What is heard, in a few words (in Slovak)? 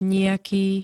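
"nijaký", Slovak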